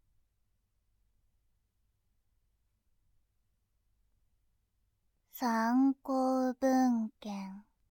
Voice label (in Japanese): 「参考文献」, ASMR